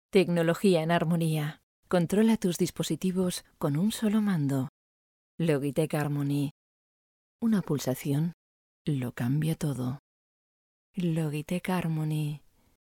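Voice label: female, Spanish; logitech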